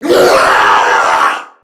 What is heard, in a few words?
Death Crewmen, en; Warframe; Corpus Crewmen; Crewman B; Dialog Barks Battle